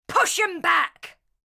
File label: Ranged Female Chokepoint Line, dialogue; NPC; Heist Thug Chokepoint